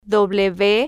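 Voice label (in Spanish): "w", spanish, female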